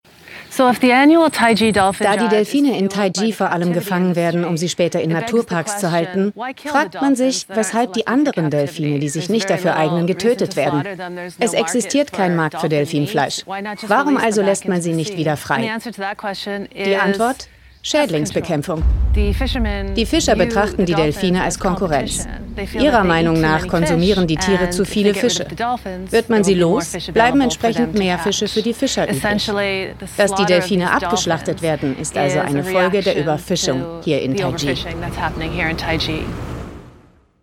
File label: Doku VO; Seaspiracy